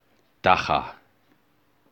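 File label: "dagga", English; pronunciations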